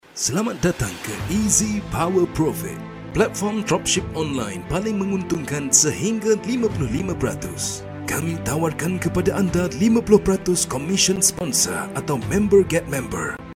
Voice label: Malay male